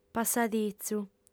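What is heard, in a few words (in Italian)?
"passaditzu", lingua sarda, fem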